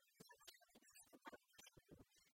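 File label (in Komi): ныв-зон сёрнитчисны паныдасьӧм йылысь, Komi, female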